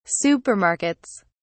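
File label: "supermarkets", English, British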